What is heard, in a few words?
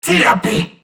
Surprised Crewmen; Dialog; Barks; Battle; Warframe; CorpusCrewmen; CrewmanD